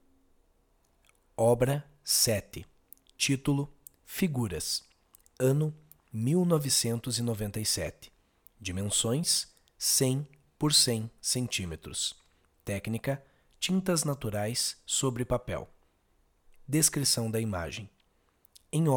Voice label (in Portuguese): audiodescricao; Galeria Virtual